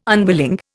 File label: "unwilling", Indian